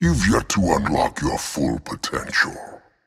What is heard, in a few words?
taunt directed; smite; set; mulan